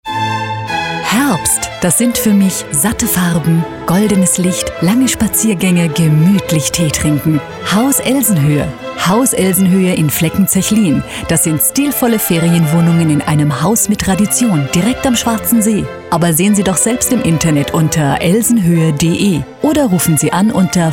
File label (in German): radiospot; elsenhoehe im herbst